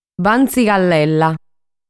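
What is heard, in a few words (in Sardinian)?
"Bantzigallella", Sardinian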